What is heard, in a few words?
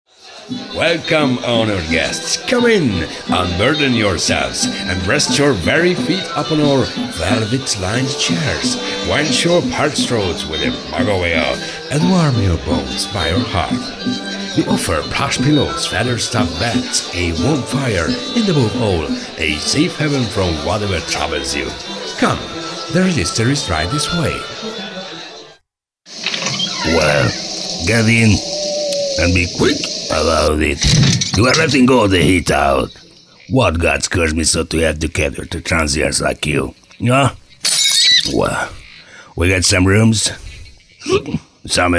character innkeepers english